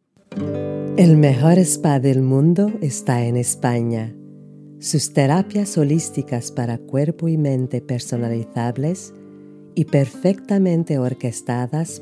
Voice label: Spanish V.O